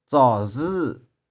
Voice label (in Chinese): "tsau2zy6", 玉環